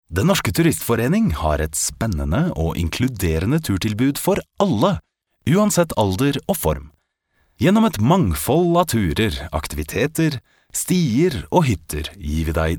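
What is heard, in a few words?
Norwegian male voice over, upbeat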